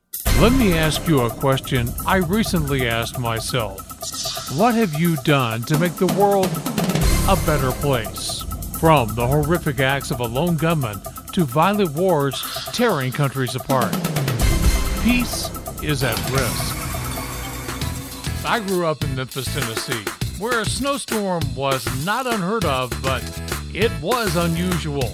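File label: NARRATION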